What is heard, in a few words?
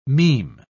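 "meme", British English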